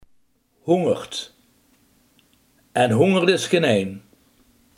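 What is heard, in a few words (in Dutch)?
honderd, Limburgse gesproken woordenboeken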